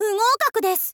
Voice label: "fugoukakudesu", girl; voice